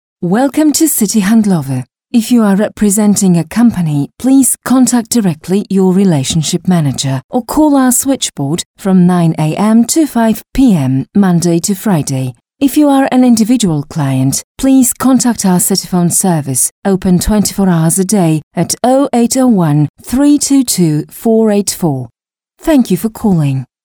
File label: IVR